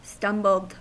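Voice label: "stumbled", US English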